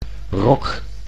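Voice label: "rok", Dutch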